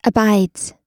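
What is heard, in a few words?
"abides", American English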